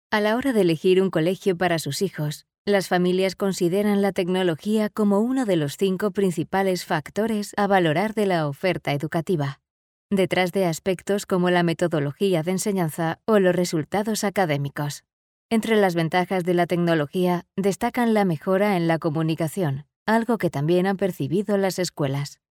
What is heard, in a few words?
locutora spanish voiceover